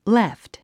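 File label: "left", English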